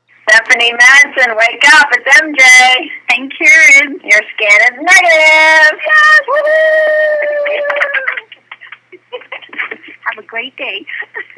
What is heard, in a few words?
Voicemail